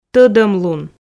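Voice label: "тодомлун", Komi, female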